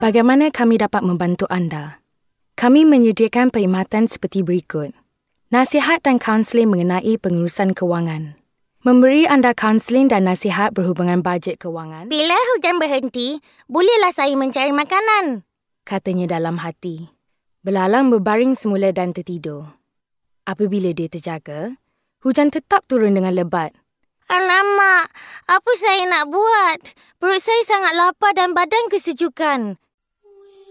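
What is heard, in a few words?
Malay General; GoLocalise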